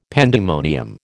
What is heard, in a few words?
"pandemonium", American